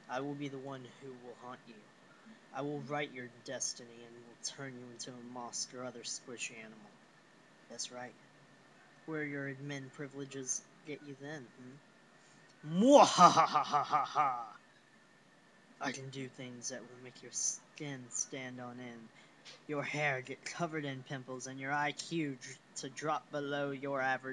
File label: Narrator